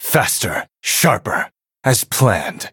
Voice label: eng level up; Camembert